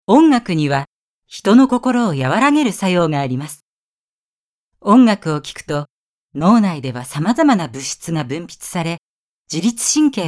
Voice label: narration